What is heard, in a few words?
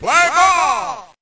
Play Ball Sound